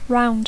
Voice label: "round", English; ronda